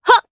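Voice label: Vox Jump, Japanese; Lorraine; kingsraid; jp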